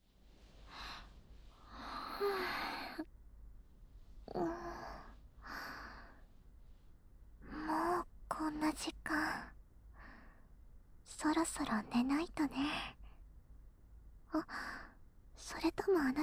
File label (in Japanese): 密着囁き添い寝耳舐め; 貴方の事が好きすぎる彼女(候補)